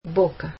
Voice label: spanish mouth